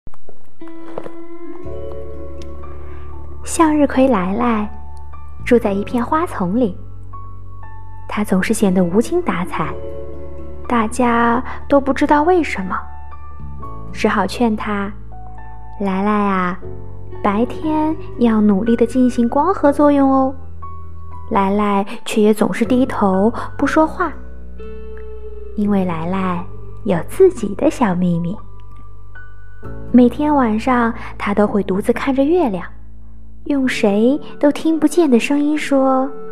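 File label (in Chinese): 可我就是喜欢你呀, 故事旁白 女 青年 普通话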